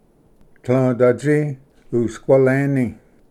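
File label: "tlvdatsi usqualeni", Cherokee; ᏢᏓᏥ ᎤᏍᏆᎴᏂ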